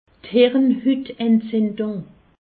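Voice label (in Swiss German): "hirnhutentzindung", Alsatian; medecine; méningite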